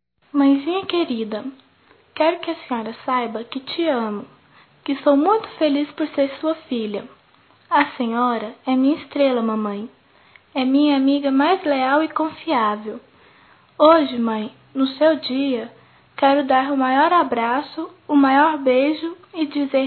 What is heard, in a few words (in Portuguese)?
Mãe infantil, fem; Dia das Maes; Telemensagens 2017